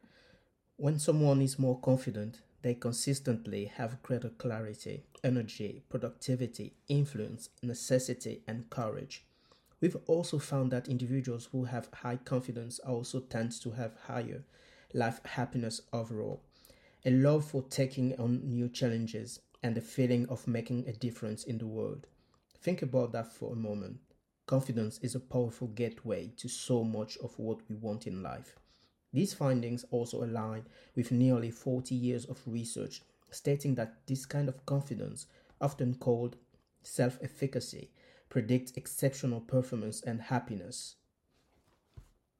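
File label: African English, General; voice demos; GoLocalise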